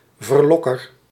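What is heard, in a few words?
"verlokker", Dutch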